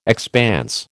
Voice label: "expanse", American

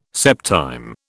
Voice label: "septime", British

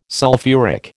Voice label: "sulphuric", American

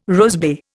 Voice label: "rosebay", Indian

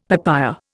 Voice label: "papaya", Indian; Dictionary

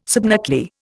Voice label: "subnuclear", Indian

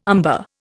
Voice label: "umber", Indian

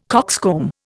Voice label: "cockscomb", Indian

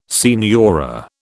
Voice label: "signora", British

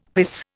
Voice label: "piss", Indian; Dictionary

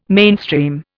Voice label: "mainstream", Indian

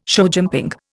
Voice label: "showjumping", Indian

